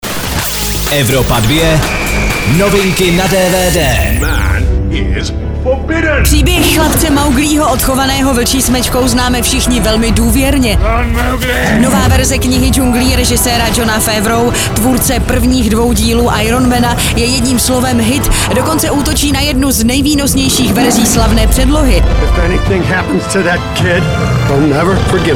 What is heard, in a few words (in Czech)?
DVD NEWS; Kniha džunglí